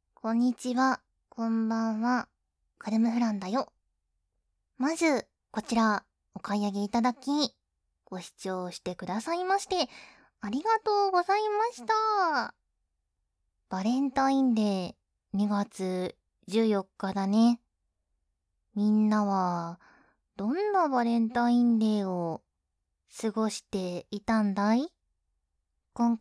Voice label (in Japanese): フリートーク, ASMR